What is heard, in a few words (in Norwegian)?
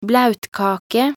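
"blautkake", Norwegian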